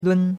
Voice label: "lun1", chinois; Cours-de-Chinois

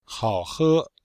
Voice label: "hao3he1", chinois; Cours de Chinois

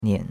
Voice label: "nian3", chinois; Cours-de-Chinois

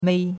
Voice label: "mei1", chinois; Cours-de-Chinois

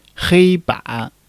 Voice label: "hei1 ban3", chinois

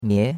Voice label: "mie2", chinois; Cours de Chinois

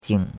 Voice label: "jing3", chinois; Cours-de-Chinois

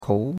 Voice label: "kou2", chinois; Cours-de-Chinois

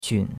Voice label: "qun3", chinois; Cours-de-Chinois

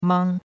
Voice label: "mang1", chinois; Cours-de-Chinois

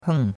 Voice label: "heng4", chinois; Cours de Chinois

